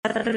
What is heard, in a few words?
Phoneme Female; Commonscript; Lynguistykon